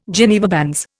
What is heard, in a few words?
"Geneva bands", Indian